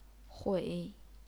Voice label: "hui3", chinese pinyin